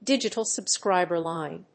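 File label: "digital subscriber line", English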